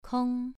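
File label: "kong", Mandarin Chinese, second tone, pronunciation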